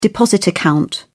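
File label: "deposit account", English, British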